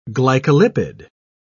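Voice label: "glycolipid", English, British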